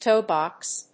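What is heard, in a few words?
"toe box", English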